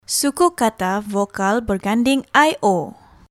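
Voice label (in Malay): vokal berganding io, Malay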